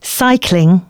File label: female, announcements